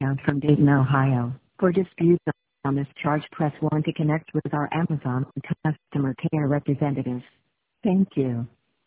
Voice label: voicemail